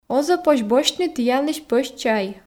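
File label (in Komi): оз-ӧ позь босьтны тіянлысь пӧсь чай, Komi, female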